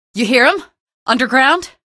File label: alert antlions, female